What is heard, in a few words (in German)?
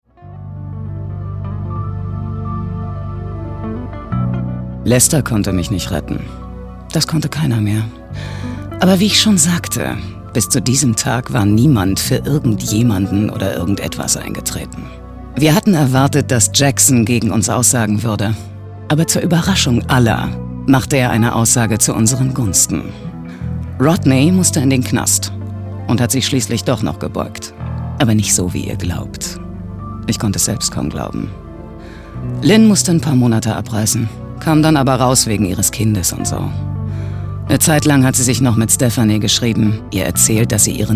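synchron, sprecher; LOFT VOICES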